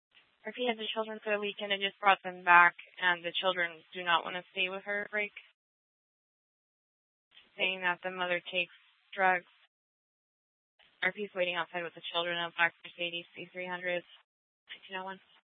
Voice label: Scottsdale PD Sth Dispatch, scanner